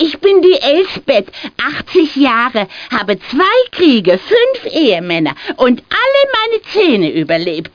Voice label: Old Woman

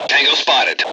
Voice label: detect generic, Voice English

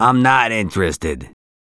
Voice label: No recruit, Male; gangsters

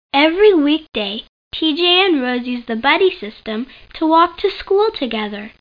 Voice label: Narrator; T.J. Finds a Friend

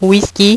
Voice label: "whisky", French; HyperSound™2

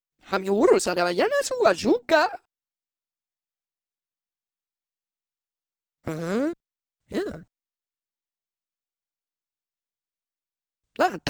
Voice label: talk Gossip; Pacifica

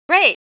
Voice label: happy great!; Spades Deluxe 1.6

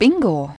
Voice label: female; Bingooo 2.6.0

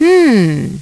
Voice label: Hum, Voice, Prompts; Opcode Systems

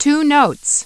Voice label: Two Notes, Voice, Prompts; Opcode Systems

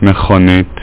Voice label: car, Hebrew; WordMatch

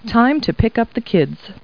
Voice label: people, kid

